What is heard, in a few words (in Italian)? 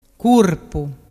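"curpu", Vernazzese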